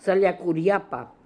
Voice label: "Saliakooriapa", pronounciations; Fourth Way; Beelzebub

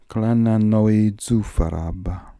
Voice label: "Klananoizufarab", pronounciations; Fourth Way; Beelzebub

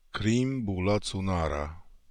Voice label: "Kreemboolazoomara", pronounciations; Fourth Way; Beelzebub pronounciations

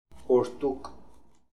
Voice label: "Boordook", Beelzebub pronounciations; Fourth Way

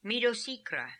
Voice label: "Midosikra", pronounciations; Fourth Way; Beelzebub

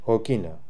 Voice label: Okina, Beelzebub pronounciations; Fourth Way

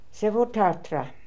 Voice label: "Sevohtartra", pronounciations; Fourth Way; Beelzebub

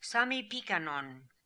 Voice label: "Sami Pikan On", pronounciations; Fourth Way; Beelzebub

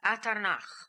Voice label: "Atarnakh", Beelzebub pronounciations; Fourth Way

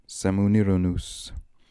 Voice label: Semooniranoos, Beelzebub pronounciations; Fourth Way

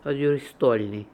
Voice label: "Oduristolnian", pronounciations; Fourth Way; Beelzebub pronounciations

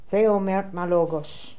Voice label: "Theomertmalogos", pronounciations; Fourth Way; Beelzebub

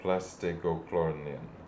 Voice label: "Plastegloklornian", pronounciations; Fourth Way; Beelzebub

